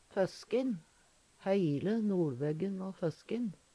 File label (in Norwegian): "føskin", Numedalsmål; Planteriket; Heile noLveggen va føskin.